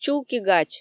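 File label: "чулки-гач" Komi, female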